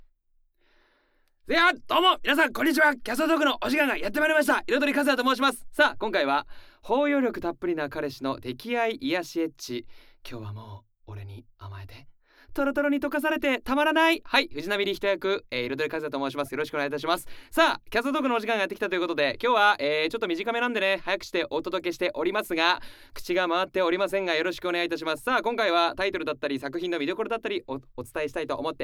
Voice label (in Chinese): 声优访谈; 充满包容力的男友的溺爱治愈性爱〜今天就尽情向我撒娇吧…沉醉得心荡神驰不能自已〜